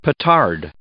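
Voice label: "petard", English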